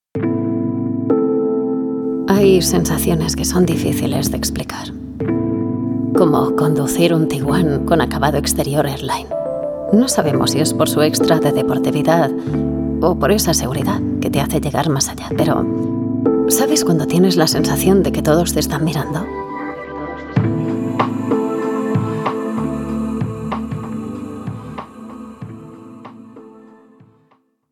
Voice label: NATURAL VOICE, Spanish, female